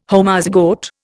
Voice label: "Homozygote", Indian

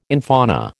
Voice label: "infauna", American

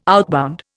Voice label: "outbound", Indian

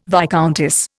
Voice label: "viscountess", Indian

